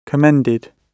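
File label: "commended", British English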